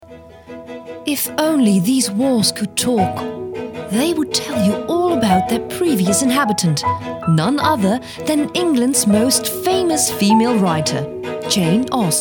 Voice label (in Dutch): vo demo engels